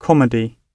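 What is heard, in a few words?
"comedy", British English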